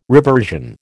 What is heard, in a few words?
"reversion", American